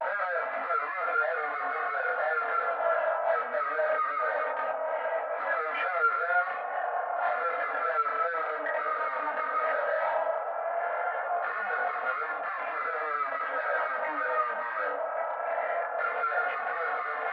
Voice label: Transmission Apparatus vocal processing short; USO

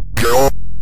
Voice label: "draw", synth voice; Kenney's Sound Pack; Synth Voice 1

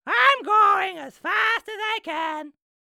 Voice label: troll voice i'm going as fast as i can dry mono; SpillAudio; Character Troll

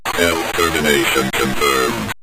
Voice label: stealth termination confirmed, synth voice; Kenney's Sound Pack

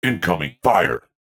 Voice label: Incoming Fire, Sci-Fi Voices; 344 Audio

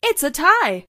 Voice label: "its a tie", Voiceover, Female; Kenney's Sound Pack

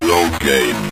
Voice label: load Game, Synth Voice; Kenney's Sound Pack

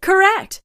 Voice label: "correct", female; Kenney's Sound Pack; Voiceover Pack

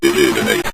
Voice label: "eliminate", Synth Voice; Kenney's Sound Pack

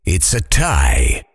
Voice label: "it's a tie", Voiceover Pack Fighter; Kenney's Sound Pack

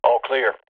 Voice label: Military Radio Voice All Clear; Apple Hill Studios; Military Radio Voices

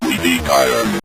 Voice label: we Need Iron, Synth Voice; Kenney's Sound Pack

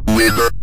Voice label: "winner", Synth Voice; Kenney's Sound Pack; Synth Voice 1

